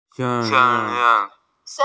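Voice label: "forest", Mandarin; sen1lin2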